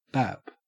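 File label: "bap", Australian English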